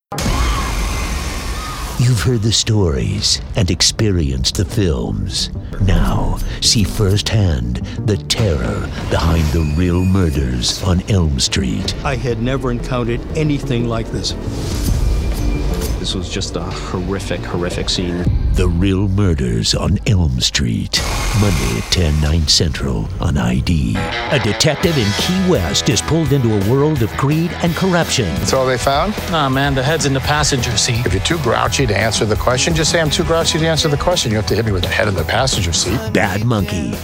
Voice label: men promos; From movie trailers to urban and narration, versatile big voice actor with lots of character.

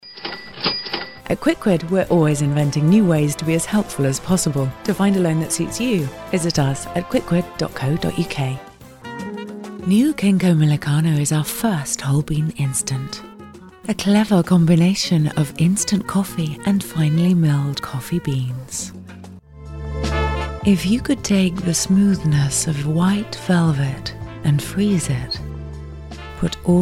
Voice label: british commercial; BRITISH » Breathy and factual British accent.

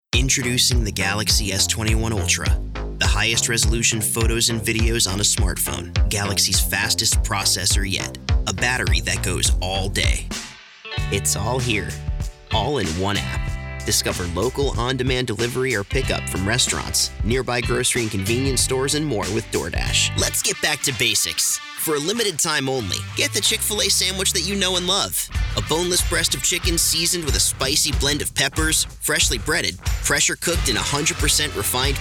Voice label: men commercial; Young, tech-savvy 20-something.

